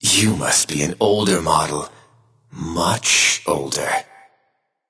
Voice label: Taunt Directed; Whirlwind Tech Xing Tian; Janus; Smite

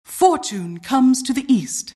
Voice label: announcer generic alert; Vo announcer ann custom; Dota 2

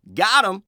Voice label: Announcer Match Slain Enemy

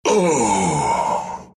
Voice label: death, ru; Wraith

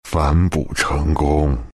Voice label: deny, zh; Dota 2

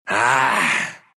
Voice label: anger, ru; Riki; Dota 2

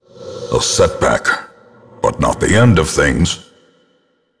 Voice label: narration; Darkest Dungeon; expedition fail

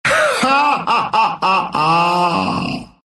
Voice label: laugh, ru

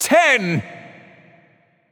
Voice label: Announcer Town Crier Count 10; Paladins

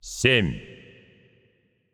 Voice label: Announcer Russian Count; paladins

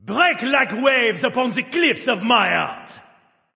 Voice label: male kill jungle boss; Valentine; Khepri; Smite

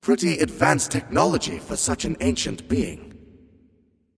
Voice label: Taunt Directed; Scifi Ram; Janus

